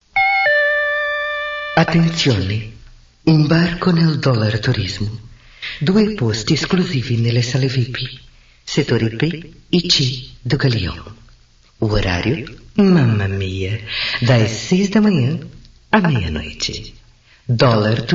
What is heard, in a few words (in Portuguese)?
spot italiano